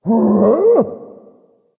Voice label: surprise; Smite; cabrakan

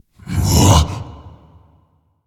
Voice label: surprise; smite; kukulkan

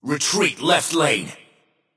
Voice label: vox retreat; smite; xingtian

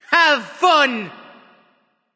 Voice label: vox emote; smite; izanami

